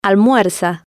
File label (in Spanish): "almuerza", spanish